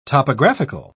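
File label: "topographical", British English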